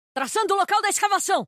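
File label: Portuguese; 探奇（守望先锋2）; 终极技能; 葡萄牙语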